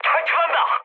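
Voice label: Korean bailout mood med; War Thunder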